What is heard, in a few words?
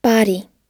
"body", US